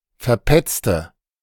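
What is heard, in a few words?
"verpetzte", German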